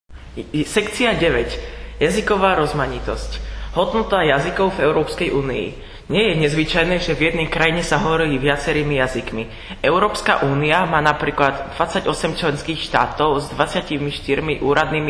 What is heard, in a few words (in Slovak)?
slovensky